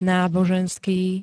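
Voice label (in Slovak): "náboženský", Slovak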